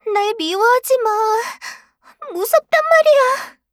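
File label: kill Player, Voice Line, Korean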